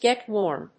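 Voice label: "get warm", English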